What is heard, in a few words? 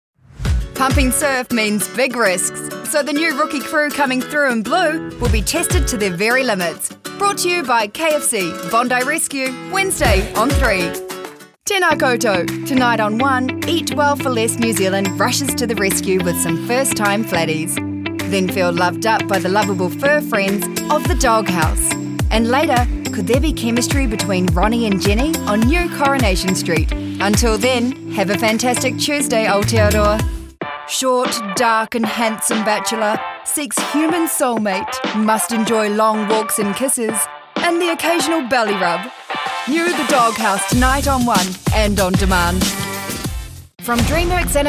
TV Network presenter and MOVIE theatre